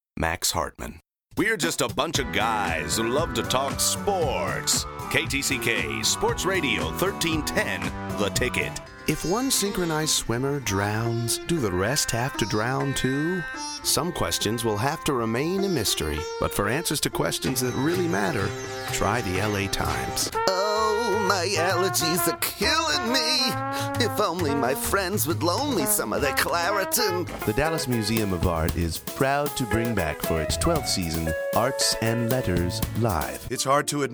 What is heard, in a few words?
Commercial